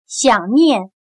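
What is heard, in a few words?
"想念", Chinese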